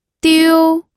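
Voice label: "diu", pinyin, first tone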